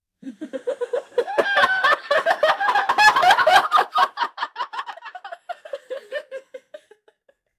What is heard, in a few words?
two middle aged mens cartoon